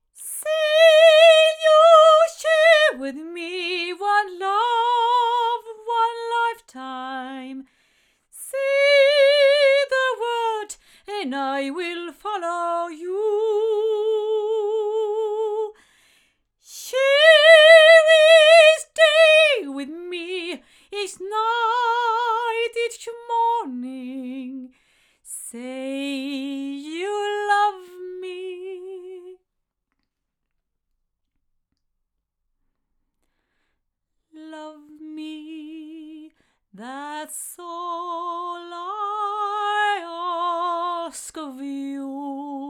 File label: Oktava MK220 omni female